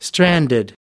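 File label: "stranded", American English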